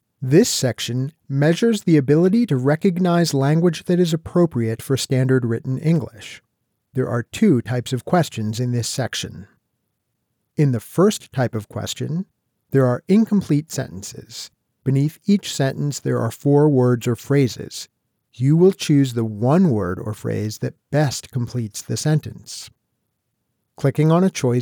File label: voice sample elearning instructions